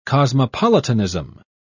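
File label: "cosmopolitanism", British English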